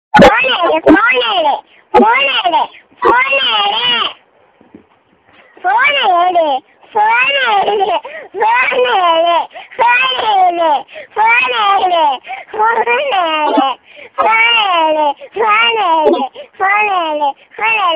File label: tamil dialogue; ringtone